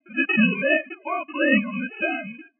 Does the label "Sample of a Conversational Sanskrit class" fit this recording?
No